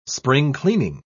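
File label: "spring-cleaning", English, British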